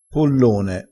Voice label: "pollone", crespinese, vocabolario dialettale

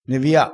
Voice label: "nevia", crespinese

